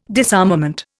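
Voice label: "disarmament", Indian English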